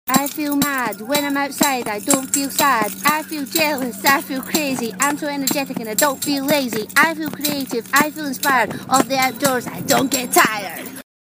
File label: Outdoor Rap